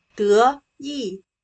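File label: "得意", Chinese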